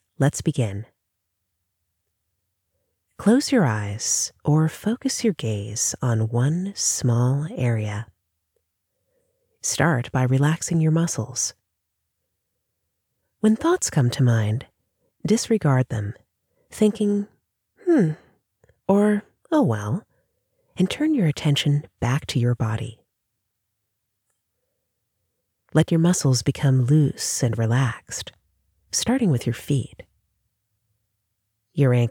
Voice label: Guided meditation